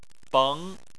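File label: "beng" Chinese, second tone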